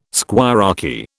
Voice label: "squirearchy", British

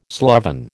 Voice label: "sloven", American

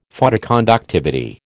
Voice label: "Photoconductivity", American

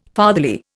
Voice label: "fatherly", Indian

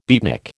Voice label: "beatnik", American

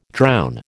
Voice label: "drown", American

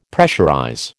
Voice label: "pressurize", American

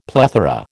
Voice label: "plethora", American

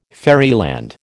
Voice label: "fairyland", American

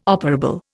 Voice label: "operable", Indian

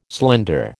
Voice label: "slender", American

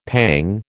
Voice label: "pang", American

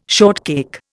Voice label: "shortcake", Indian

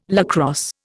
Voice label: "lacrosse", Indian

